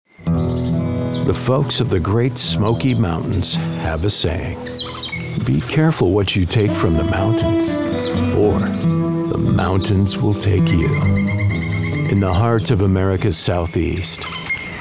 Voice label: AUDIOBOOK; Male Voice Talent